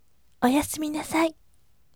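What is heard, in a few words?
voice "good night" Japanese, gentle; oyasuminasai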